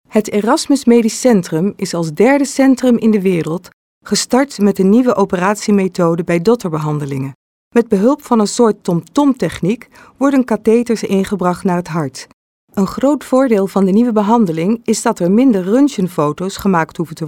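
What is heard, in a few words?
dutch female narration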